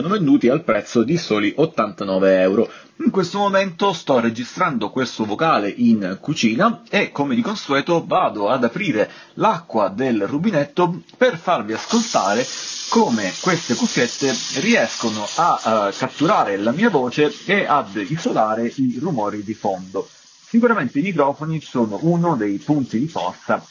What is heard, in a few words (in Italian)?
test audio freebuds 4i